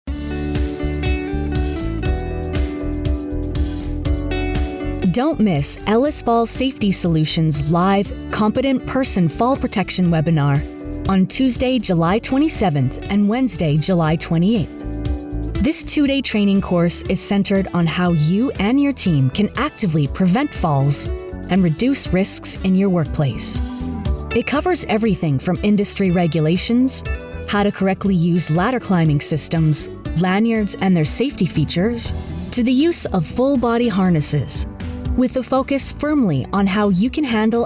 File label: Commercial; Ellis Fall Safety Solutions